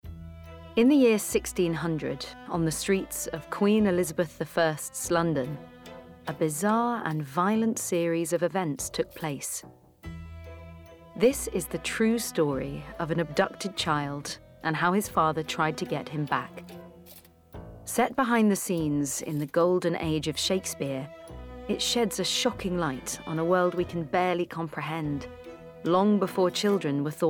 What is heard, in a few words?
Documentary; Natural Accent: RP